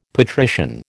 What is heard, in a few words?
"patrician", American